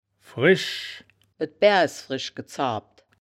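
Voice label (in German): "fresch", wittlicher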